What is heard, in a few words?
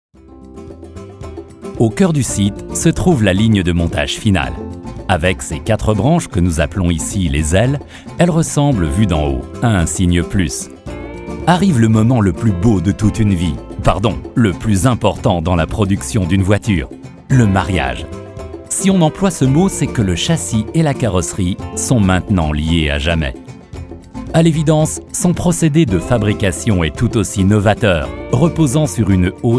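French Freundlich; Fremdtake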